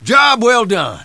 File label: engineer cheers